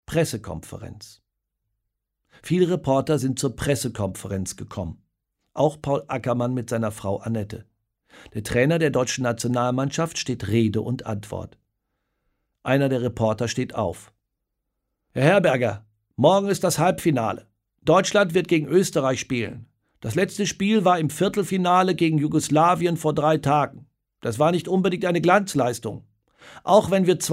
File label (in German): Hoerbuch, in einfacher Sprache; AktionMensch; Wunder von Bern